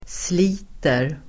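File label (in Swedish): "sliter", Swedish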